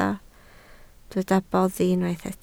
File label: "boddi", Welsh